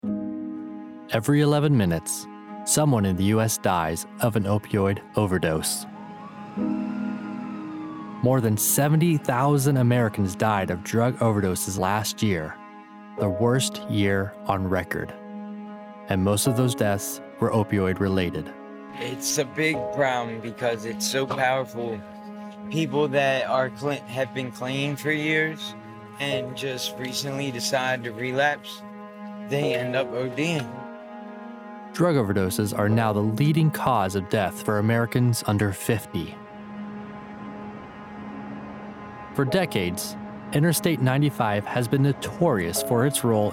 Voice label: Serious, clear, compassionate; Fentanyl Doc